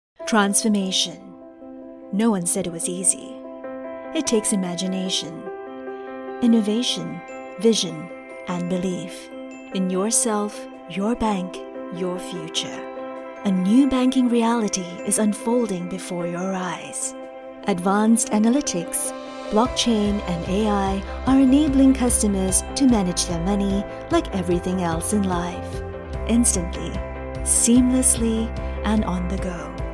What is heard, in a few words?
Company Video VO, Corporate Olv - Resonant, Motivational, Inspirational, Reputable, female